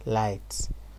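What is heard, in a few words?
"light", Nigerian Pidgin, male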